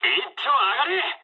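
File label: player killed enemy mood med, speech japanese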